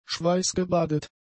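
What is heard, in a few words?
"schweißgebadet", German; adjectives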